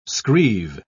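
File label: "screeve", British English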